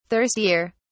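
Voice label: "thirstier", British English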